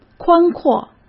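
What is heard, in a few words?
"宽阔", Mandarin Chinese; hsk; chinese-flashcards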